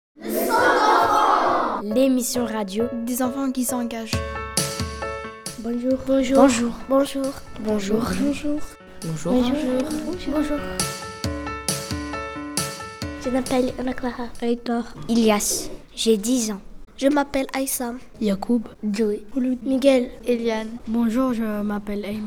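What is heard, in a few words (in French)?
Message D'accueil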